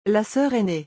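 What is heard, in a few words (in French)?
"La soeur ainee", French; vocabulaire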